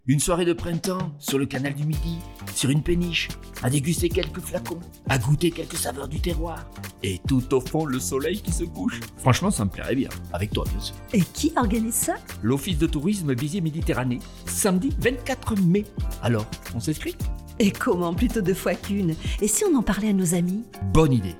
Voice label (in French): spot radio; beziers